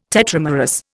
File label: "tetramerous", Indian